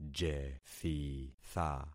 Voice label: "jethitha", concat syllables; Affixing experiment